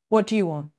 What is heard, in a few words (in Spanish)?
Voice Acting es F0